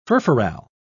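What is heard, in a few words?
"furfural", British English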